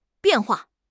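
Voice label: "bian4 hua4", Mandarin Chinese; HSK3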